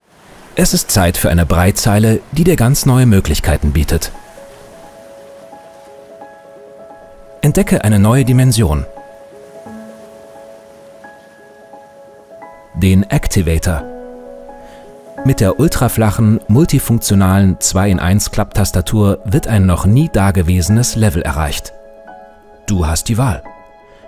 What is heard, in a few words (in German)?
Imagefilm; activator